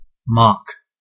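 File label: "mock", American English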